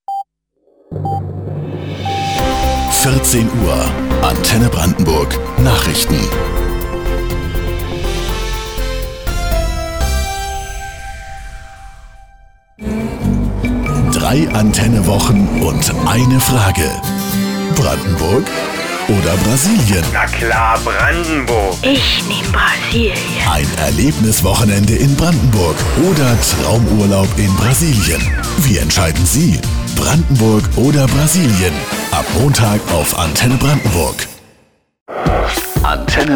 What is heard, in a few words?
Station-Voice; Antenne Brandenburg